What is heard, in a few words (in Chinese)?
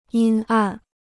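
"阴暗" Chinese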